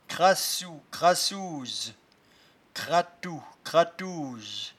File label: "crassou", patois jura